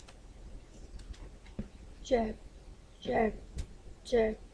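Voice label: "jab", spoken word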